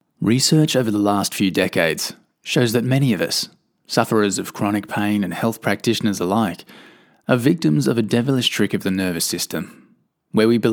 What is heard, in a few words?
AUDIOBOOK